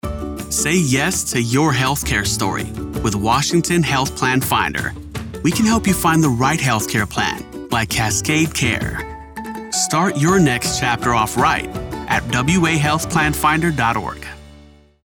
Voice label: English; WAHBE